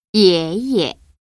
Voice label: "yeye", Chinese; 중국어 경성 연습

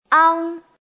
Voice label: "ang", Chinese; 중국어 복운모, 부성운모 연습

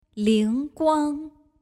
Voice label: "Lingguang", Chinese

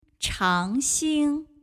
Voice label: "Changxing", Chinese

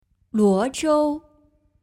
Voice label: "Luozhou", Chinese

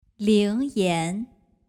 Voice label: "Lingyan", Chinese

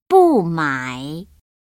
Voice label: "bumai", Chinese; 중국어 경성 연습

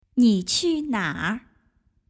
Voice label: "shanghai", Chinese

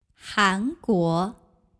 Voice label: "Hanguo", Chinese

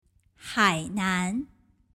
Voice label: "Hainan", Chinese

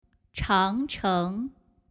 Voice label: "Changcheng", Chinese

